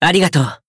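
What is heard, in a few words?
Voice healed, Japanese; curio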